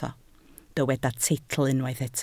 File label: "teitl", Welsh